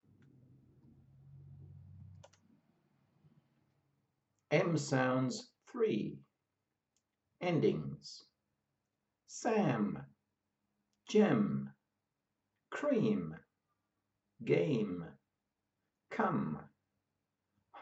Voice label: M sounds endings, pronunciation of australian english